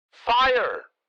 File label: Military radio voice command fire